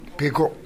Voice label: "bego", minamiyamagata